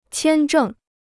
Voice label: "签证", Chinese

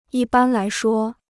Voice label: "一般来说", Chinese

